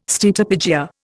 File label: "steatopygia", Indian